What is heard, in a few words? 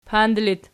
"pand", Archi